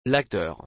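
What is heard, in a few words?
"L'acteur", French; L'acteur (m.); بازیگر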